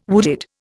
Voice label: "wooded", Indian

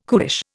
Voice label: "cuish", Indian

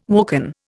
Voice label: "woken", Indian

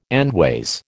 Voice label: "endways", American

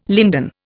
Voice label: "linden", Indian

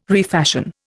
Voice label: "refashion", Indian

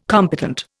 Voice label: "competent", Indian

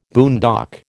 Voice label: "boondock", American

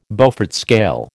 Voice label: "Beaufort scale", American

